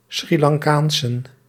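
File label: "Sri Lankaansen", Dutch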